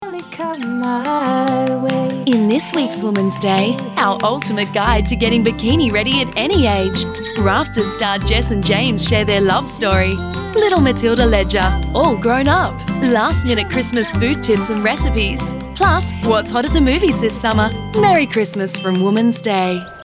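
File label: UK English, Werbung Dynamisch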